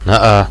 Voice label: bomb here A, radio bot; Reallite